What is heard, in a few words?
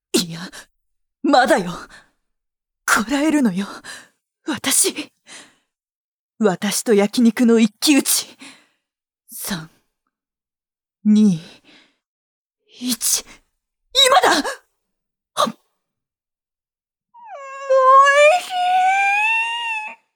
Voice Sample, jp